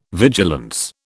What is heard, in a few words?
"vigilance", British